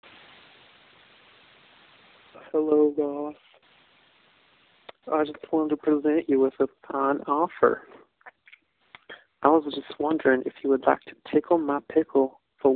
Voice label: voicemail